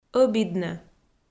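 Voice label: "ӧбиднӧ", Komi, female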